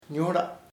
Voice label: "skin (fruit) piel de fruita", chamacoco